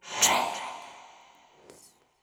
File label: "Trance", vocal phrases; Ultimate Vocals